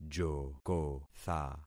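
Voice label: "jokotha", concatenated syllables; Affixing experiment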